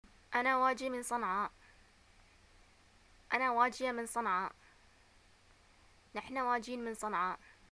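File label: I am from, Yemeni arabic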